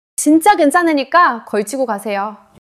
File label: neutral